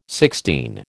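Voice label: "Sixtine", American English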